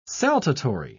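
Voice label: "saltatory", British English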